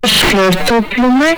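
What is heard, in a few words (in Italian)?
"esco", Italian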